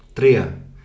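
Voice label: "tria", male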